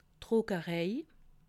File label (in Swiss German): "druckerei", alsace